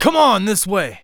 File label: Cover, Human Male; zps; HumanMale-01